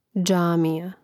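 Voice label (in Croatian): "džamija", hrvatski; mreznik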